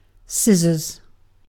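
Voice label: "scissors", British English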